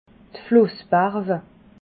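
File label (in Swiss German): "flussbarwe", Alsatian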